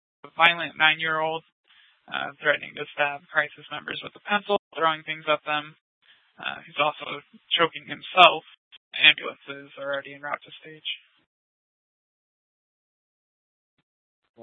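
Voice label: police dispatch radio, scanner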